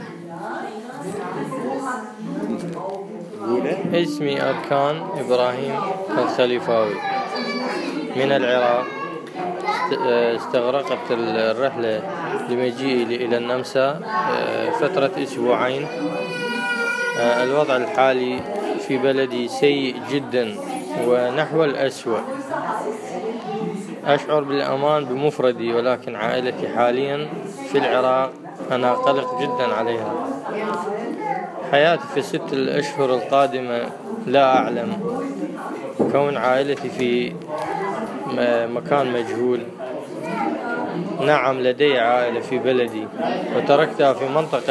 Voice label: Arabic